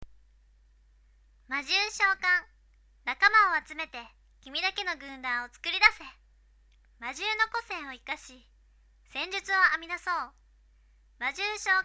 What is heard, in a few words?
narration